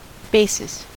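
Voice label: "basis", American English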